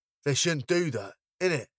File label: "They shouldn't do that innit", British